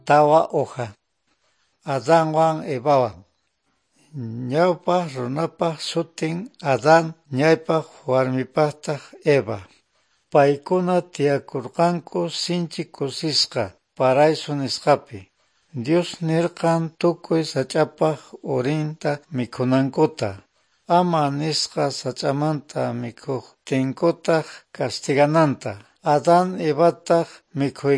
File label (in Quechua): good news quechua norte de bolivia apolo